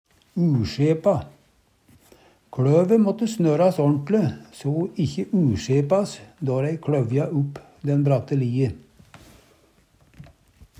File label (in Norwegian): usjepa, Numedalsmål